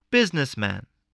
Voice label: "businessman", spelling

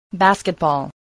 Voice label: "basketball", English

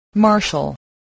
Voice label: "marshal", spelling voice

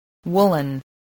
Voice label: "woolen", voice, english

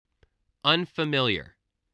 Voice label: "unfamiliar", English spelling voice

